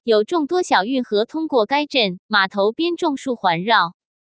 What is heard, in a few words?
mandarin chinese parametric